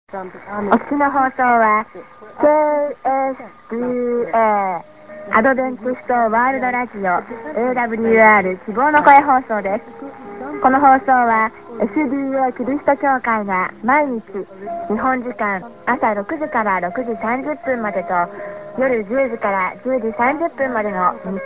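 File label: Japanese End ID->SKJ (women); awr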